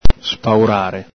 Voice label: "spaurare", Veneto

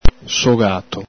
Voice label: "sogato", Veneto

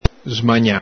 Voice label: "smagna", Veneto

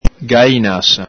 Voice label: "galinasa", Venetian

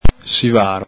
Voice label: "sivaro", Veneto

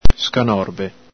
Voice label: "scanorbe", Veneto; crossbill (red c.); crociere; el Galepin; Loxia curvirostra; zoo.

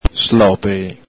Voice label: "slopele", Veneto

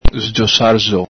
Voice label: "sgiosar xo", Veneto

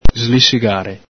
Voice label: "slisigare", Veneto

